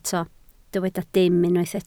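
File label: "dim" Welsh, spoken word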